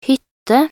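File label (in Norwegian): "hytte", Norwegian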